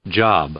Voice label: "job", English; noun

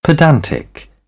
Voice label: "pedantic", British; AmericanBritish

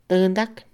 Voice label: "baño", Mixe, Mujer; Moderno; INALI

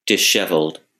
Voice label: "disheveled", English

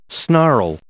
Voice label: "snarl", verb; English

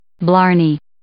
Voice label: "blarney", English

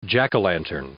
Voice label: "jack o' lantern", English

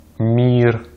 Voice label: "мир", Russian; Consonantes en ruso; easypronunciation

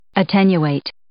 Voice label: "attenuate", English

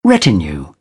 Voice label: "retinue", British; AmericanBritish

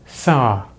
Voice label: "saw", English; Vowels in American English; easypronunciation

